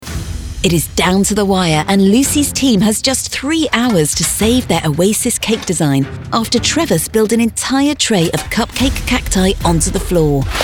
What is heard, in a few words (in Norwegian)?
Innfødt voice Engelsk (UK); kommentator